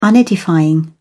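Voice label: "unedifying", British English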